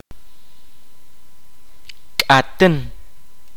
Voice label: "caliente", Kaqchikel

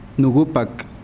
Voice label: Nawat palabras cuello; pipil

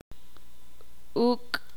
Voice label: "piojo", ixil

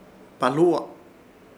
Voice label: "BAHLUWA" jefe, Ishir Chamacoco

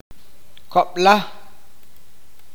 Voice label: "doce", awakateko

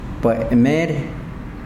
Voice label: fruta, yanesha

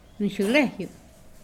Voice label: Nawat palabras esposo; pipil

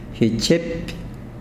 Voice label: serpiente, yanesha

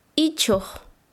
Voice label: "izquierda", Quechua Chanka

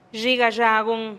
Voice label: "xhi gaxagun" nos vemos manana, teotitlan

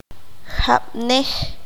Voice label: "un poco", qanjobal

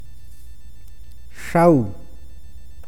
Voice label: luna, awakateko

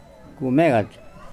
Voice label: Nawat palabras raíz; pipil

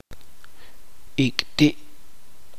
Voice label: "cuento", chuj

